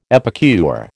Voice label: "epicure", American